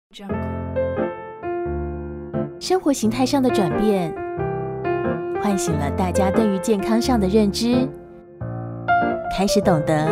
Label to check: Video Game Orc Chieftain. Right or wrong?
Wrong